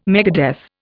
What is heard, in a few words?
"megadeath", Indian